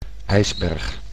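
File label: "ijsberg", Dutch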